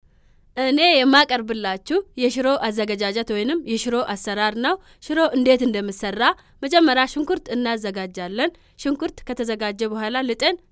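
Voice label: Amharic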